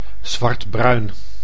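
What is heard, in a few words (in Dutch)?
"zwartbruin", Dutch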